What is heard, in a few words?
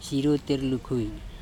"SirotErlukHui", Andamanese; deep sea